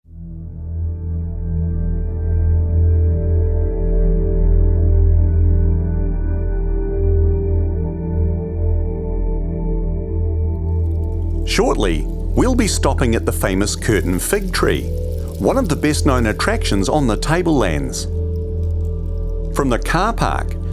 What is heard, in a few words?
figtree english